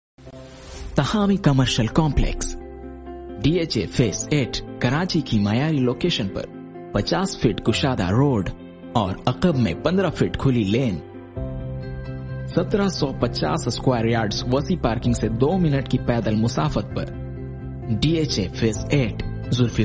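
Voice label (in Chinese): 乌尔都语男_外语_小语种_样音_浑厚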